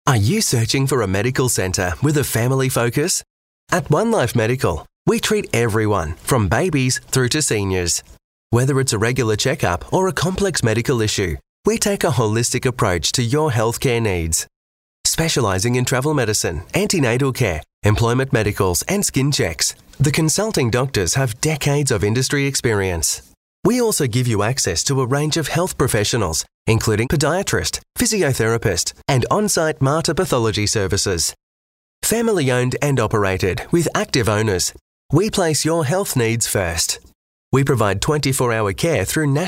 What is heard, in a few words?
english australian corporate videos; Modulated, clear Australian accent